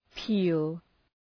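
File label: "peel", English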